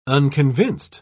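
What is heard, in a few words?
"unconvinced", British English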